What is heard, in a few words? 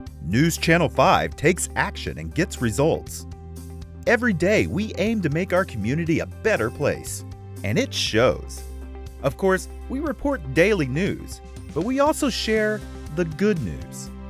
News; Ch5